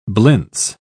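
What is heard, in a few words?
"blintz", British English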